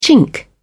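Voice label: "chink", English, British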